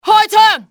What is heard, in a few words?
fire, cantonese; XBOX; enemy